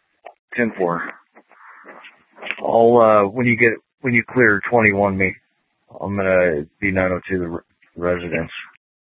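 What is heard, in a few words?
Scottsdale PD North Dispatch; Nth Dispatch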